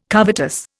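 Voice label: "covetous", Indian English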